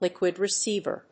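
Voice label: "liquid receiver", English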